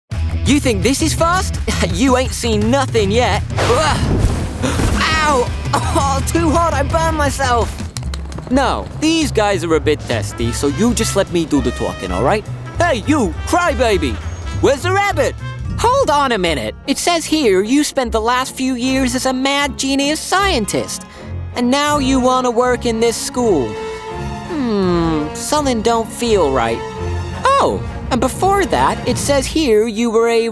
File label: Animation